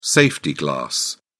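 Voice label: "safety glass", English, British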